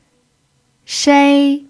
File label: "shei1", pinyin